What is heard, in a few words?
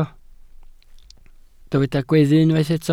"gweddu", Welsh